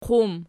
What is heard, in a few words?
"qum", Archi